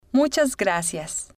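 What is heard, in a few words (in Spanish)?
muchas gracias, Spanish, female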